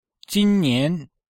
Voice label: "jin1 nian2", Chinese

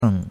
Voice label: "eng3", chinois; Cours de Chinois

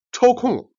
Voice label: "jian", Chinese

